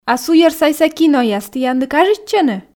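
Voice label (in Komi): а суйӧрсайса кинояс тіянлы кажитчӧны, Komi, female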